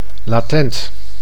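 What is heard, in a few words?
"latent", Dutch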